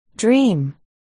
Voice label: "dream", articulation